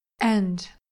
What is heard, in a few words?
"end", English pronunciation